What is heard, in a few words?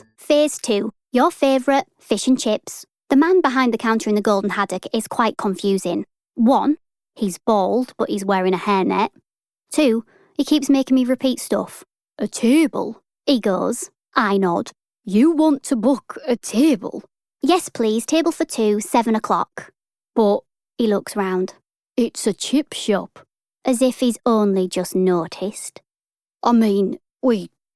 Audiobook